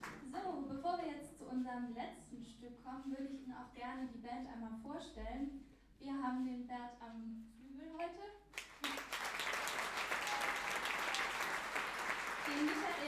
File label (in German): Ansage & Bandvorstellung; Jazz-Club Trier e.V. (JCT); 25. Jazzgipfel (Tufa, Trier)